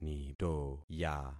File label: "nitoya", concat syllables; Affixing experiment